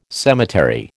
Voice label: "cemetery", American